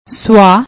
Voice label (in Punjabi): "suah", Punjabi; ਸੁਆਹ